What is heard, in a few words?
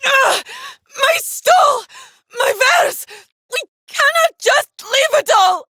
Villagers Fem en